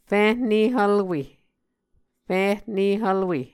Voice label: "fihne-hvlwe", muscogee